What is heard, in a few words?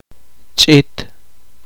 poqomchi